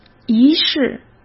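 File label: "仪式", Mandarin Chinese; chinese-flashcards; hsk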